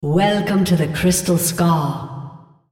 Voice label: female Welcome; Odin

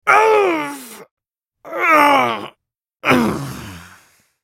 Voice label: dying, en US; Varus Ascended

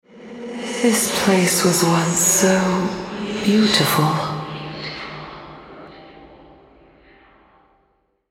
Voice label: Altar Capture, Female

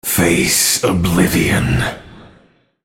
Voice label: attack, en US; Varus

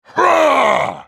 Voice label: spellcast effort, en US; Trundle

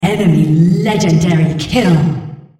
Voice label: female, On Champion Unreal Kill

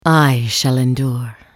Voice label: attack, en US; Anivia

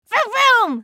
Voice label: spell cast effort; Lulu

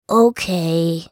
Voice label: Sad Mummy attack; Character Voices; English

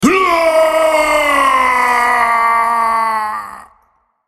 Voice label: dying, en US; BloodKingVladimir

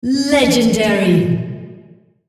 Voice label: female On Killing Spree

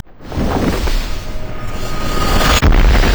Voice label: spirit emote death; LoL; udyr

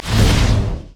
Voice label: summoner battlecry; LoL

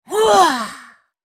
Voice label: spellcast effort; Fizz

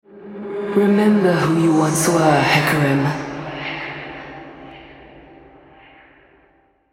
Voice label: altar capture, female, en US; Hecarim

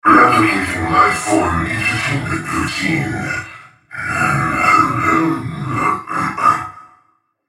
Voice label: joke, en US; Vel'Koz

